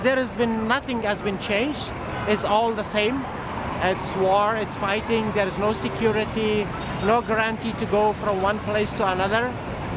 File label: Dialogue Isolate before; izotope